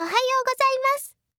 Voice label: "ohayo" happy